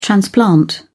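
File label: "transplant", British English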